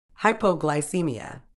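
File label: "hypoglycaemia", British English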